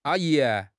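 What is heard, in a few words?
"a1 yi2", Chinese; HSK3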